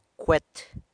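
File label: "cwe't", firecracker, San Lucas Quiaviní Zapotec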